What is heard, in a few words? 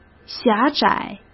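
"狭窄", Mandarin Chinese; chinese-flashcards; hsk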